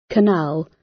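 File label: "canal", British English; Time, history; Thời gian, lịch sử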